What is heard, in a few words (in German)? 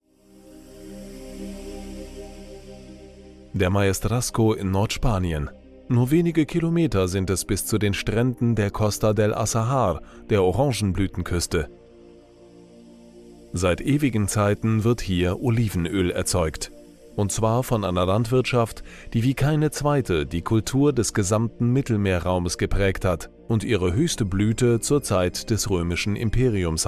dokumentation olivenbaeume; Bornheim; Muttersprache Deutsch